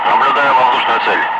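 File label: Russian speech, Ka-50 Wingman; Messages tally bandit; DCS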